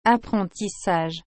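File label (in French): "apprentissage", French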